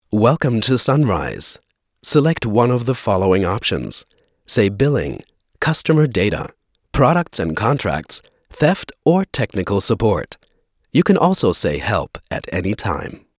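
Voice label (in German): British telefon, sprecher englisch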